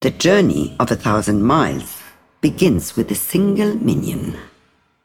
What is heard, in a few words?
Female Broker Recruit Small Minion